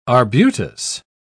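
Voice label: "arbutus", British English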